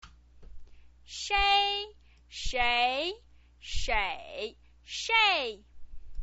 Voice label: "shei", chinese pinyin